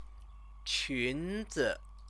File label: "qunzi", Chinese